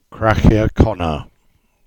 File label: Cornish; Break-My-Neck